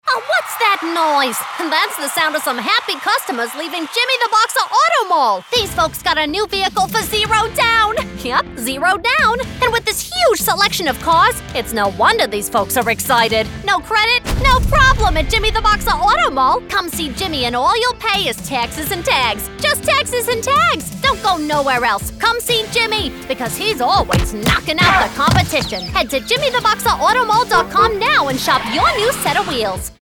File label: english american automotive; actriz de voz premiada